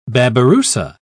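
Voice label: "babirussa", British English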